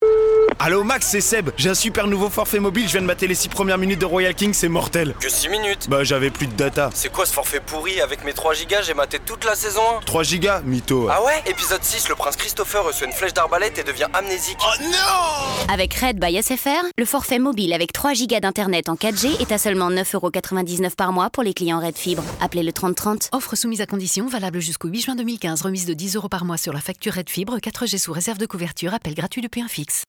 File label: RADIO; SFR; RED FIBRE; LE SPOILER